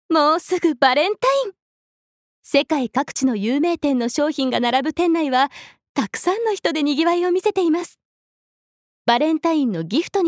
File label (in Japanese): ナレーション